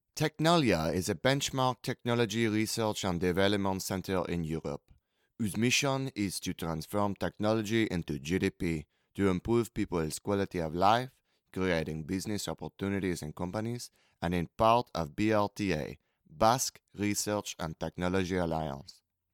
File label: Research Alliance (French)